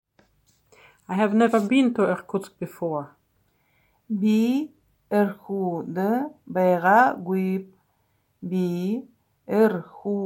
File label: I have never been to Irkutsk before, buryat